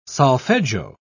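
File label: "solfeggio", English, British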